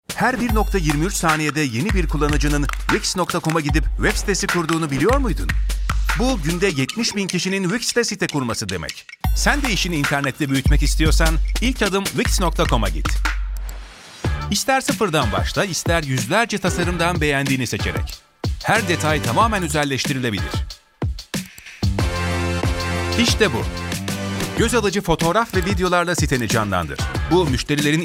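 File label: Turkish, male, commercial; voice-over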